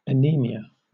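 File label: "anemia", British English; pronunciations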